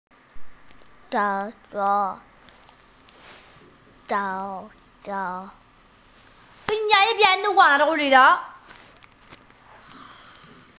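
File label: "ju3shou3", Mandarin Chinese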